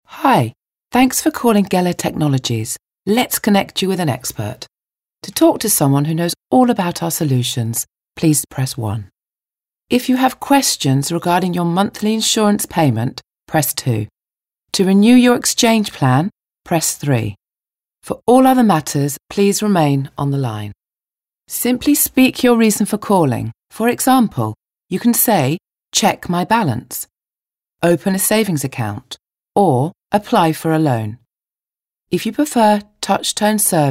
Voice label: IVR

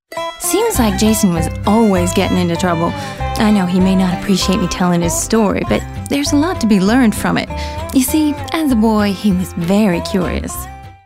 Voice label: folksy homespun

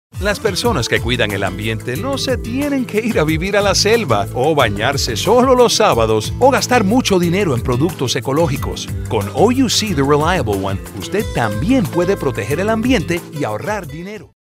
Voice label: genuine friendly

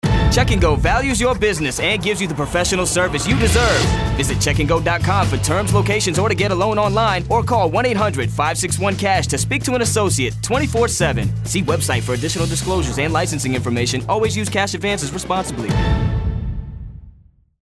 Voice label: upbeat

